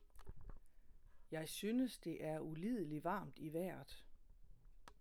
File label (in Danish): Uligle warm dansk